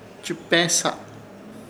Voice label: "Chɨpeẽsa", Ishir Chamacoco; rodear